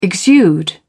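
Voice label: "exude", British English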